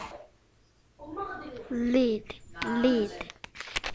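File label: "lead", spoken word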